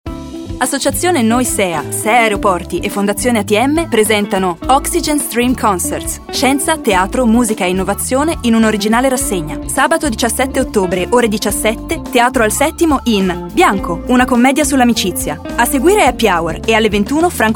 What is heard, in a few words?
commercial